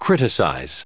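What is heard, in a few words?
"criticize", English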